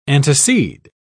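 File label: "antecede", British English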